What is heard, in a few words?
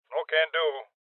Voices Refuse Male Old; rebel cops 2019 gamerip